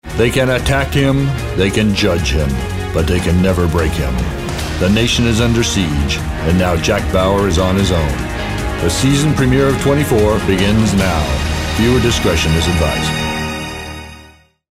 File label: TV Drama